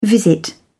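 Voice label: "visit", English, British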